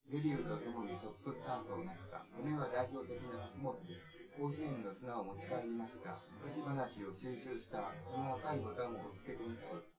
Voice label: male synth conv; SiSEC10; underdetermined